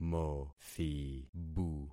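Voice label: "mothibu", concatenated syllables; Affixing experiment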